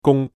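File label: Cantonese "gung1"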